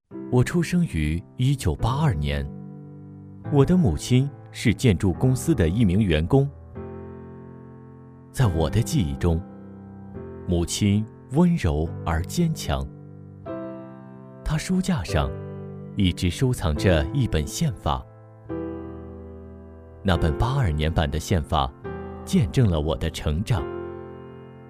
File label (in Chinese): 自述 人物